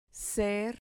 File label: "ser", Spanish syllable